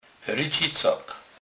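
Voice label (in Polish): "rycicak", Kurpie dialect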